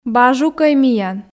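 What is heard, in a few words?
"бажукӧй миян", Komi, female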